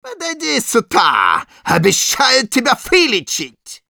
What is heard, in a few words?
taunts, ru; Medic